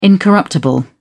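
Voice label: "incorruptible", English, British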